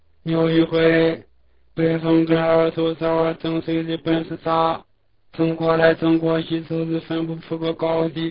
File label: Xi an mono